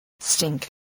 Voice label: "stink", voice

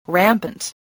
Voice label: "rampant", english

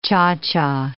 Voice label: "cha-cha", English

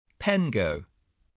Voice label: "pengo", English, voice

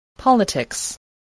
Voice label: "politics", English

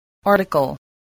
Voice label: "article", English

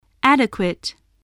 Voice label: "adequate", English voice

